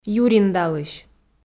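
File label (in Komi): "юриндалысь" Komi, female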